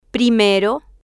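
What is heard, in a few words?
"primero", Spanish, female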